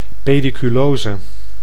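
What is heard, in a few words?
"pediculose", Dutch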